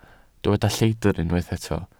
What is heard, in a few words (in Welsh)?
"lleidr" Welsh